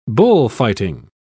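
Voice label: "bullfighting", British English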